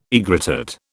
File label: "aegrotat", British